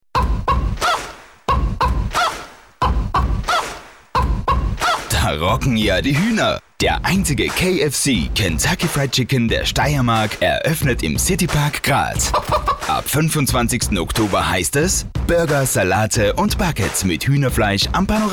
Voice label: Sprecherdemo Werbung

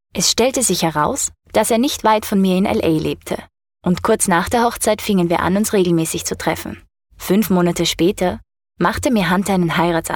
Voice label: Sprechsample Lesung

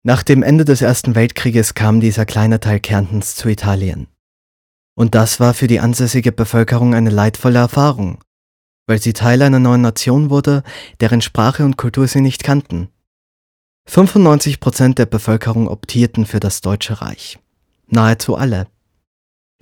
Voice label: Synchron

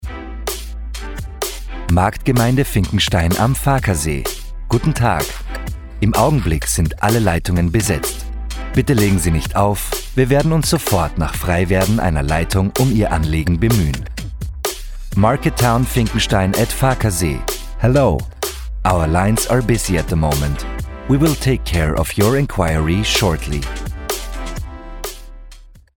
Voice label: Telefonansage

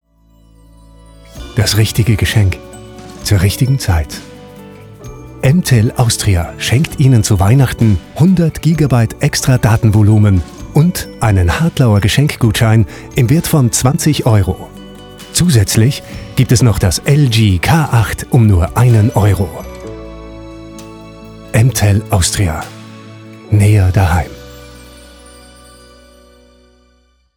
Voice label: werbung

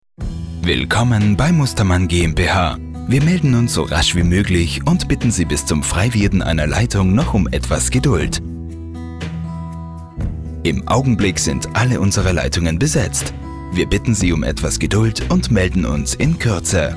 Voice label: Sprecherdemo Telefonschleife Deutsch Englisch

